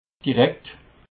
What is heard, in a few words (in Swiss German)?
"direkt", alsace